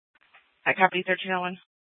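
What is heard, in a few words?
Police Department Dispatch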